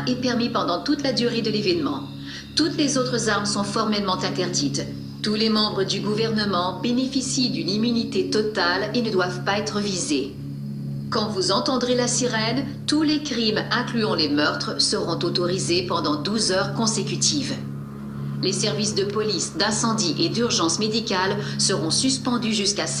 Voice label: the purge announcement frensh version